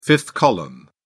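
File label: "fifth column", English, British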